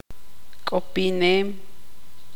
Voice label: tzutujil

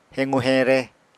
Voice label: "hequ-heñre", apatani

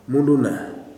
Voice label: "liver", kuman

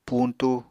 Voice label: "puñtu", Apatani

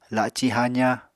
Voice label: "laci haza", Apatani

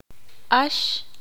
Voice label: ixil; tú vos usted singular

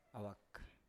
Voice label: "awak", Biak

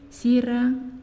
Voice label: "Egg", Naasioi

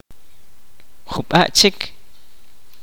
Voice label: kaqchikel; a little more

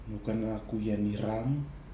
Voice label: "nɨkenaku jeniram", Nafe; your heart

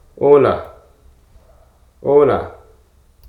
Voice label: "hay", Hruso

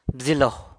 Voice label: "bzilo", San Lucas Quiavini; eye

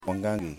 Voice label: "Pied Cormorants", Yimas

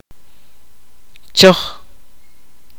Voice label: "straight", kaqchikel

